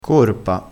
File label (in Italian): "corpa", crespinese